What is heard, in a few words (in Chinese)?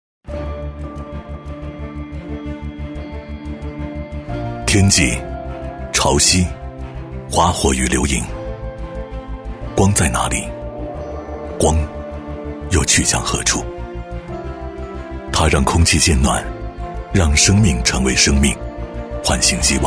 男 高端广告; 电视台声; 质感磁性; 比亚迪tvc“追光而上”